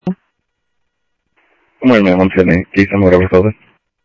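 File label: Sheriff East County, scanner audio trunk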